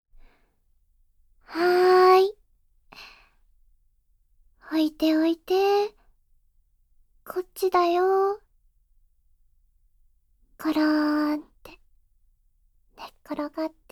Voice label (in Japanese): 安眠耳かきで、おやすみなさい, ASMR